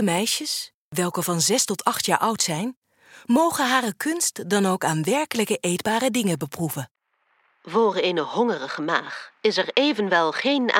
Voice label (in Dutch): KEUKEN verteller; Huis Van Gijn